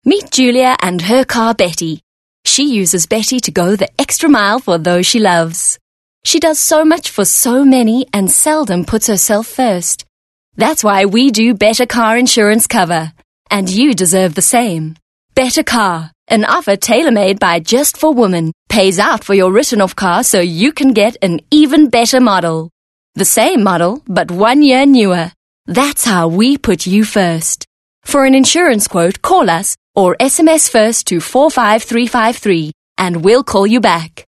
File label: English Conversational